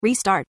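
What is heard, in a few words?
English Restart; EFI Audio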